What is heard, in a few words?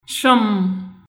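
Haitian Creole; chambre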